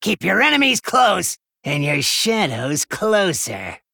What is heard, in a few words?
Male Gnome